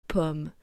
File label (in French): "Pomme", French